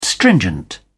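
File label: "stringent", British English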